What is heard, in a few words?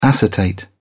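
"acetate", British English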